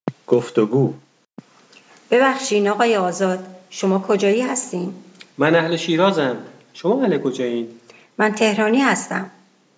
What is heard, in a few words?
Dialog, persian